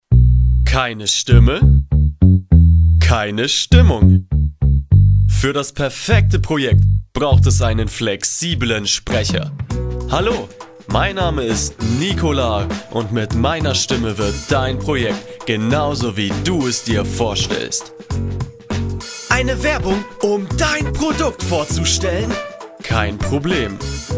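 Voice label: German, male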